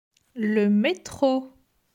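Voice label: "Le metro", French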